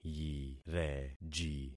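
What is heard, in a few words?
"yireji", concatenated syllables; Affixing experiment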